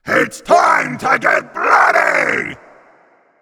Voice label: Pirate exclamation

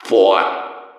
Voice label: Intercom countdown 4

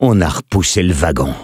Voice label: cart going back defense soft fr; Sniper

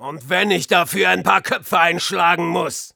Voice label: jeers; Soldier

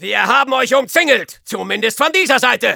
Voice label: Soldier taunts, de

